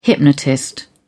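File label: "hypnotist", British English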